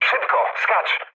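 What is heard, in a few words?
bailout, mood low, Polish speech; War Thunder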